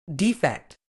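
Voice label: "defect", British English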